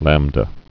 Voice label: lambda, greek alphabet; A lambda baryon